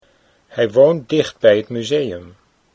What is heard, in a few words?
hij woont dicht, Dutch